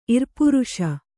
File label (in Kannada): "ಇರ್ಪುರುಷ", kannada